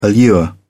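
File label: "allure", British English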